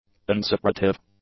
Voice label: "unsecretive", english; adjectives

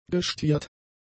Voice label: "gestiert", German; adjectives

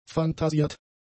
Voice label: "fantasiert", German; adjectives

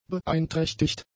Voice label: "beeinträchtigt", German; adjectives

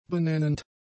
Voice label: "benennend", German; adjectives

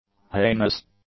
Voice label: "heinous", English; adjectives

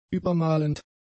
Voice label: "übermalend", German; adjectives

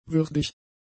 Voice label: "würdig", German; adjectives

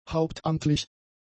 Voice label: "hauptamtlich", German; adjectives

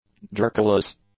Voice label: "jerkyless", english; adjectives

